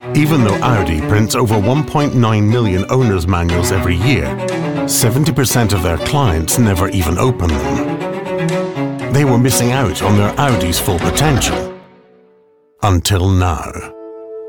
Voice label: english scottish automotive